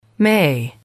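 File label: "may", English, female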